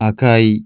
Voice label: RED, japanese